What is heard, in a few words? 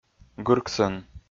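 "гырксӧн", Komi-Zyrian, male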